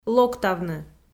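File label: "локтавны", Komi, female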